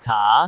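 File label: "caa2", Cantonese; google D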